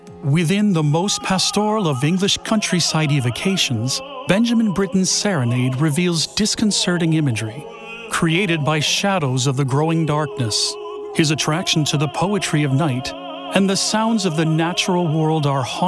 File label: voice over actor warm